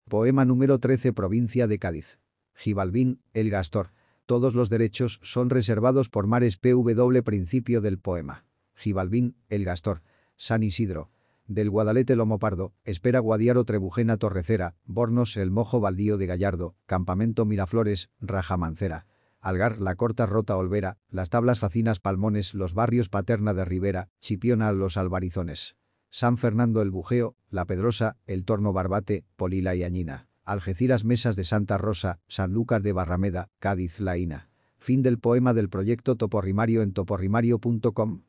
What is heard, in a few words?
es male